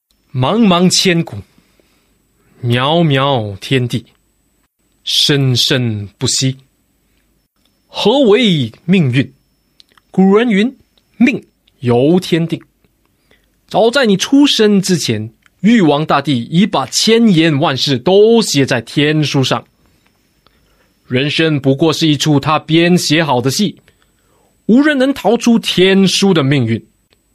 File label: Mandarin Voice Sample, Male; China